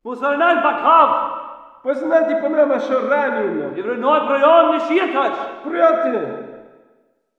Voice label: walla rus mountain conv